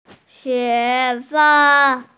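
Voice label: "qu1fen1", Mandarin Chinese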